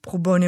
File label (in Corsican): "prupone", Moita Verde (nord)